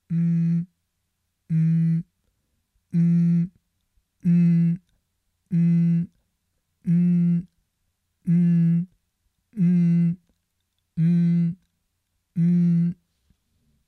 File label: sound m, pronunciation